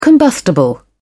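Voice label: "combustible", British English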